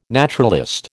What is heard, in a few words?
"naturalist", American